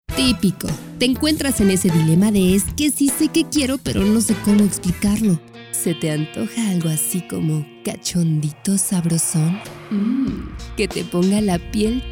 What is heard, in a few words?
spanish